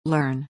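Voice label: "learn", English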